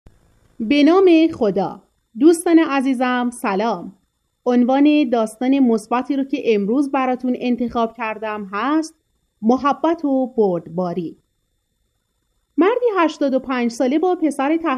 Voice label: old man with son